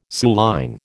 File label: "suilline", American